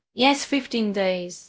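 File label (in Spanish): Voice Acting, es, female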